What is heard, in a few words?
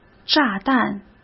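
"炸弹", Mandarin Chinese; chinese flashcards; hsk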